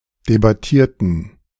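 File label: "debattierten", German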